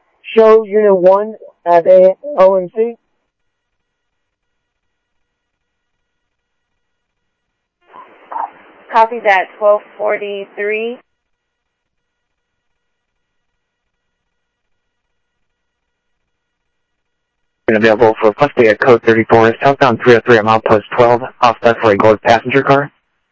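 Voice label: Light Rail Security Light Rail, scanner audio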